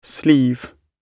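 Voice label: "sleeve", British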